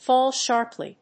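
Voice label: "fall sharply", English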